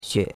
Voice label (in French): "xue3", chinois; Cours-de-Chinois